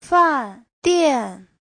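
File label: "fàndiàn", Mandarin Chinese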